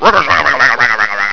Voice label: Cursing

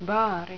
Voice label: "baari", Finnish

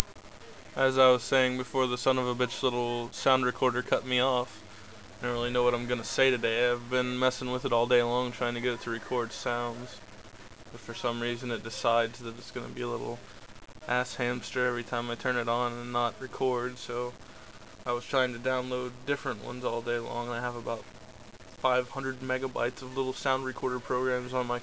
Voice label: babble

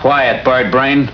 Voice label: quiet

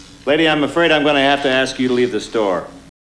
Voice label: Army lady

